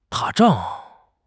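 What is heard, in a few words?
"打仗", Chinese; HSK6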